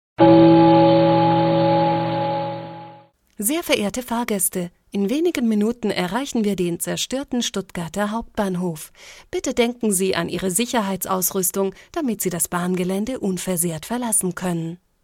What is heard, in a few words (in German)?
Ansage